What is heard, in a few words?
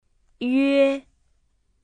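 "üe", Mandarin Chinese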